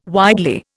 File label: "widely", Indian